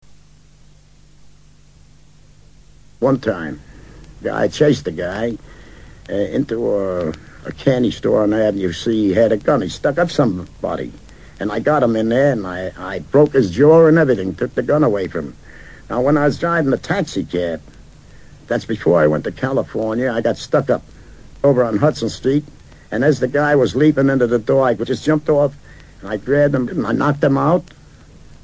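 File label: NEW YORK Brooklyn, Accents of English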